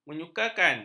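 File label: "menyukakan", Malay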